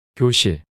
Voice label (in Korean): "교실" 단어 남자